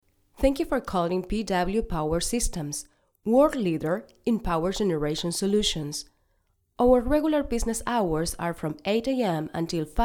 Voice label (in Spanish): Locutora, Ingles; PW Power Systems